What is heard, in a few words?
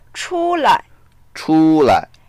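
"chu1lai", Mandarin Chinese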